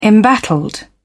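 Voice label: "embattled", British English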